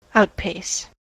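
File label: "outpace", US English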